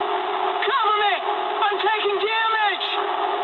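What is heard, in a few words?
aircraft damaged mood high, speech English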